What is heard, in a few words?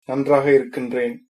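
fine, Tamil, male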